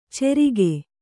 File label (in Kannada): "ಚೆರಿಗೆ", kannada